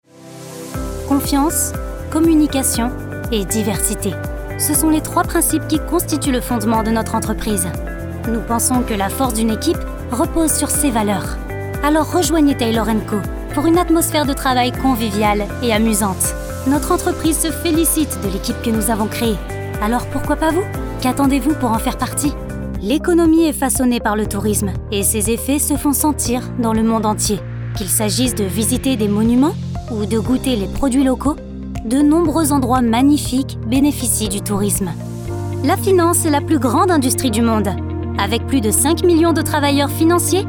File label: Corporate demo